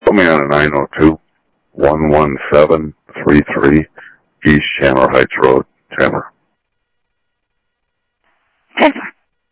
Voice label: Sheriff, scanner audio